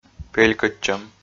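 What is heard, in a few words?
"пелькӧдчӧм", Komi, male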